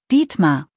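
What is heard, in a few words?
"Dietmar", German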